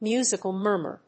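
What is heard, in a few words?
"musical murmur", English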